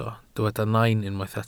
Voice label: "nain", Welsh

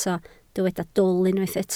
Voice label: "dwl", Welsh

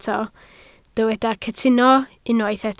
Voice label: "cytuno", Welsh

